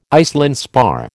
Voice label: "Iceland spar", American

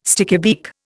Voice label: "stickybeak", Indian

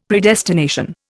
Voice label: "Predestination", Indian; Dictionary

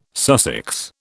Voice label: "Sussex", British

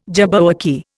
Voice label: "jabberwocky", Indian

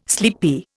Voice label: "sleepy", Indian

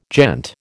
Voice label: "gent", American

